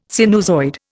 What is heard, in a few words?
"sinusoid", Indian